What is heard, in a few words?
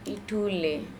"iTHule", Andamanese; pound